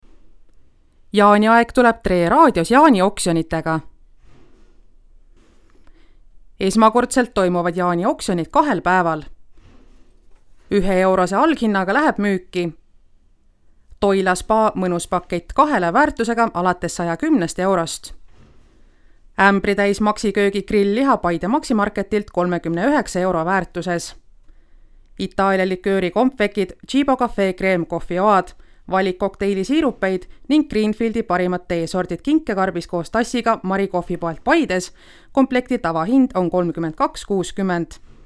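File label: Estonian, female, narration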